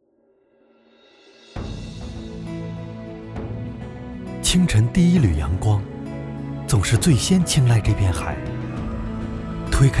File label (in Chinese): 房地产宣传片配音